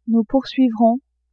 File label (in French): "nous poursuivrons", French